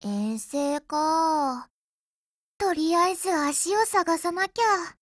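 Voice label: operation begin Japanese; Jatimatic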